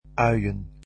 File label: "onions", Dutch